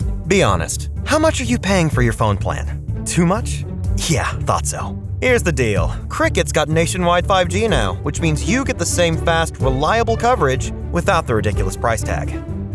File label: Commercial